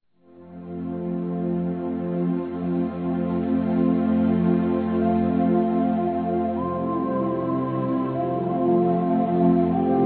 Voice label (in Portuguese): Neutro Católico Masculino; Aniversários; Aniversários diversos